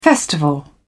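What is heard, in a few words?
"festival", British English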